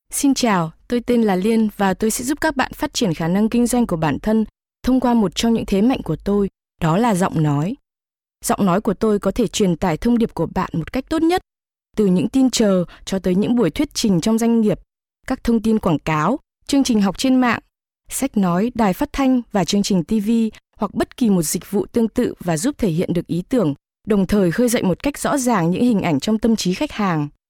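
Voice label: Vietnamese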